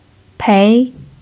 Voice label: "pei2", Mandarin pinyin